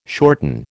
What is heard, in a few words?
"shorten", American